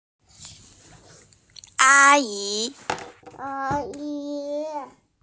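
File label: "a yi", Mandarin Chinese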